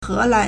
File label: "he2lan2", Chinese